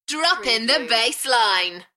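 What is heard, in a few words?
UK female, Enthusiastic, DJ vocals; Droppin the bassline; Party / Dance; beanstalk audio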